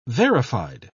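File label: "verified", British English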